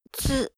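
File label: "tsu", Japanese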